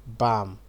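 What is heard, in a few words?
"bam", Nigerian Pidgin, male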